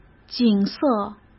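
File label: "景色", Mandarin Chinese; chinese-flashcards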